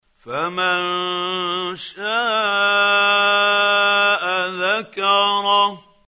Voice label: quran, Hussary, tafseer; Abasa